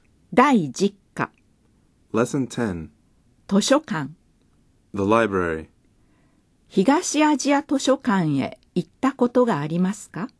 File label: Dialog